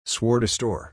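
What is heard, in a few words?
"swore to store", English pronunciation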